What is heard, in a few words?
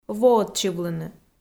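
"воӧдчывлыны", Komi, female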